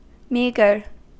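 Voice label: "meagre", American English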